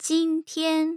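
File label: "jintian", Mandarin Chinese tone pair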